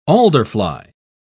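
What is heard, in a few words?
"alderfly", British English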